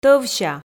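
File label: "тӧвся", Komi, female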